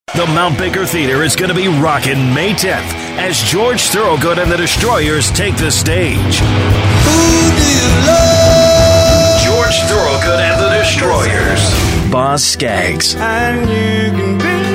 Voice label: concert ad